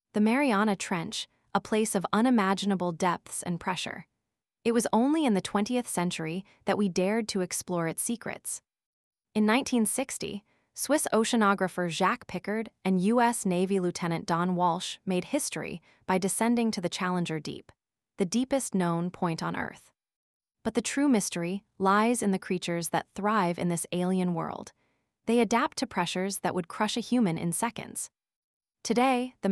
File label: voiceover en